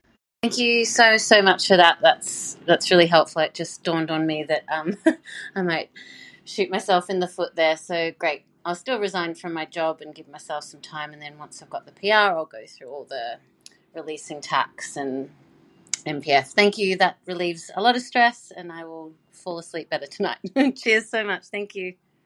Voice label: testimonial message